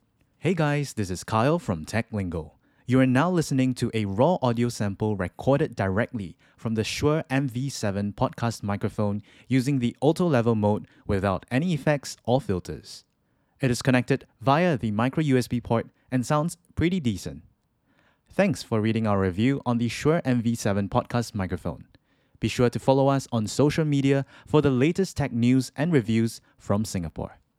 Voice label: Shure MV7 Microphone Sample